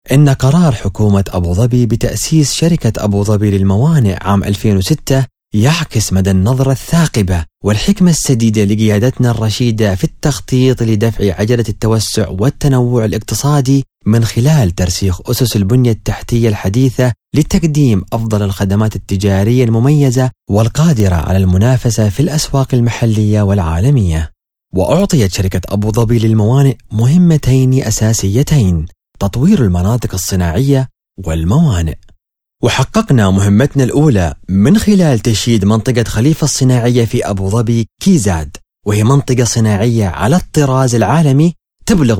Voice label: Corporate Video, Emarati; Khalifa Port